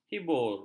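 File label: "hibur", Malay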